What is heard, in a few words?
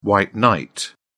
"white knight", uk